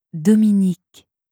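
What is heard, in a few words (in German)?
"Dominique", französische Mädchennamen, Aussprache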